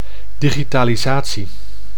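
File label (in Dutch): "digitalisatie", Dutch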